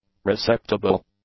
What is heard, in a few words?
"receptible", English; adjectives